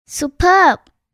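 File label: "superb", English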